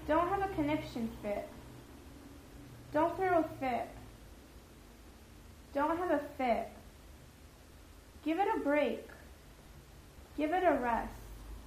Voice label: encouraging someone to be less aggressive informal